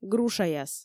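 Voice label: "грушаяс", Komi, female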